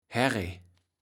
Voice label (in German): "Herrij", Platt